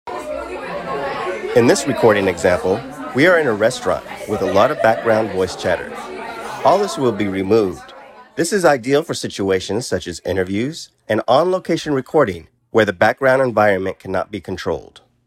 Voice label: Noise Reduction Example